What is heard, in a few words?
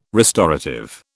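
"restorative", British English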